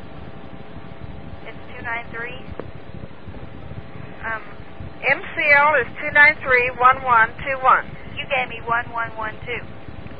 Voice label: mall lady wrong number, Prank Calls